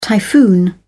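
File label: "typhoon", British English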